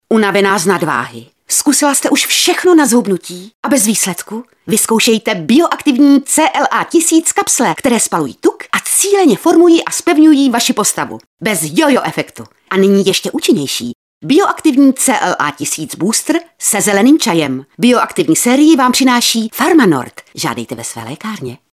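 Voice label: female Czech commercial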